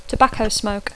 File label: "tobacco smoke", English; Dacco